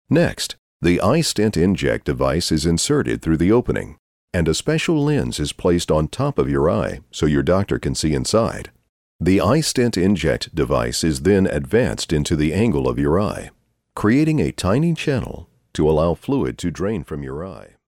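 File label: English US, male, e learning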